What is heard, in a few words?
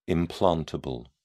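"implantable", British English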